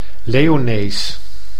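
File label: "Leonees", Dutch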